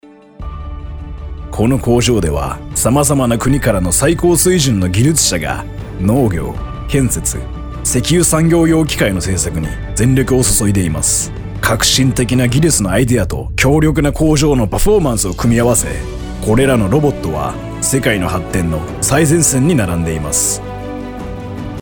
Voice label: 日语男 大气磁性 大气; 外语; 小语种

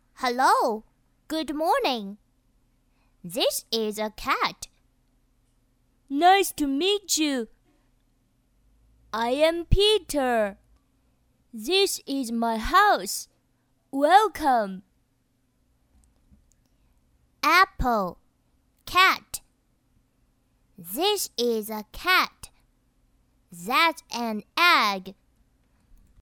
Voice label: 动画 童声 英语男童

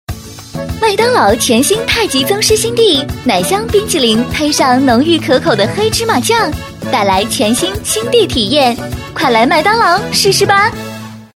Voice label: 广告 品牌形象 欢快, 女国; 麦当劳

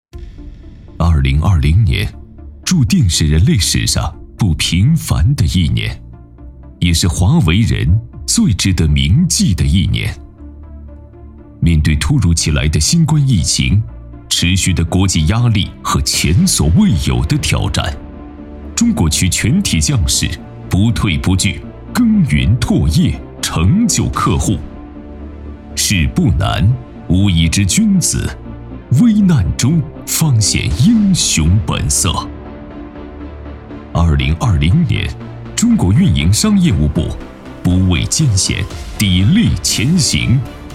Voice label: 专题 汇报 浑厚, 男; 华为公司中国区2020汇报片

